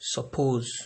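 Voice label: "suppose", Nigerian Pidgin, male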